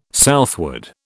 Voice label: "southward", British